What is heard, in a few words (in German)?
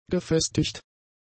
"gefestigt", German; adjectives